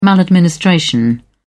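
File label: "maladministration", British English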